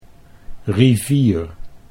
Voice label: "rivier", Dutch